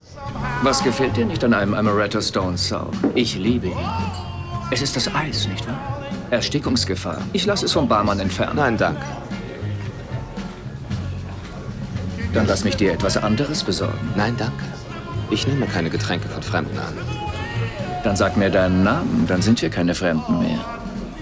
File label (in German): Synchron, maenner; Halston